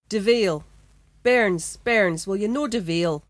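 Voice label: devail: bairns, bairns, will ye no devail?, Cromarty Fishertoun dialect; [deVAYL: bairnz, bairnz, will ye no deVAYL]; stop: children, children will you please pack it in?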